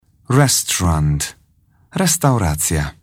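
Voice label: "restaurant", English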